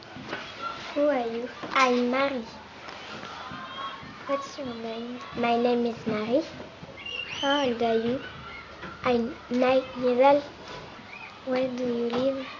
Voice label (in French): Dialogue anglais